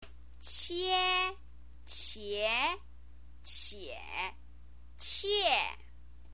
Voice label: "qie", chinese pinyin

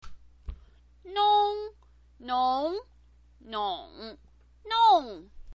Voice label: "nong", Chinese pinyin voice

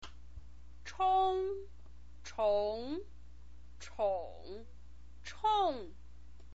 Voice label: "chong", chinese pinyin

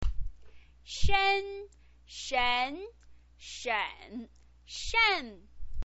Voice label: "shen", chinese pinyin

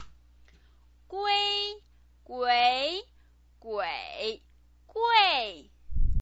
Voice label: "gui", Chinese pinyin

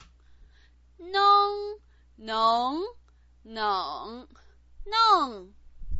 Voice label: "neng", Chinese pinyin

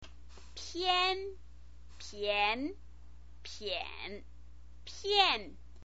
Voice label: "pian", chinese pinyin

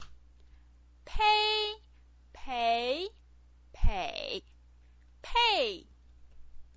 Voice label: "pei", Chinese pinyin

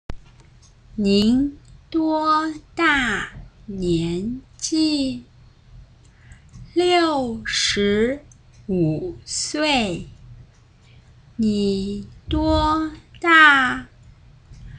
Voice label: huihua, chinese

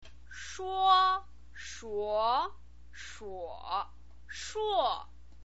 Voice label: "shuo", chinese pinyin

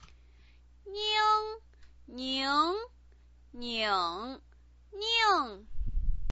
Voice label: "ning", Chinese pinyin

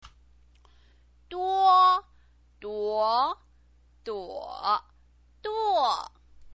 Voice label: "duo", Chinese pinyin

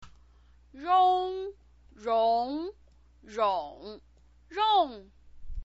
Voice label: "rong", chinese pinyin

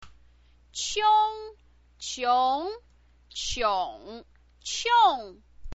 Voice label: "qiong", chinese pinyin

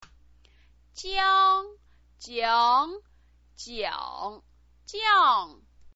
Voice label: "jiang", chinese pinyin

